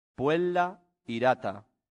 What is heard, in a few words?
"puella irata", Latin; santillana